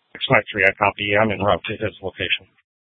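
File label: Sheriff, Parks, Sheriff radio scanner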